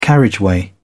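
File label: "carriageway", English, British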